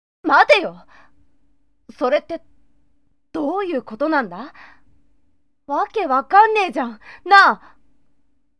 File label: sample girl